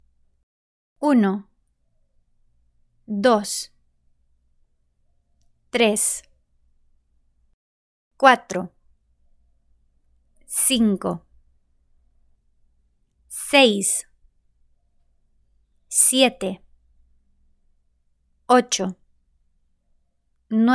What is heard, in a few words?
numbers in spanish